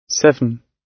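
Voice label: "seven", British English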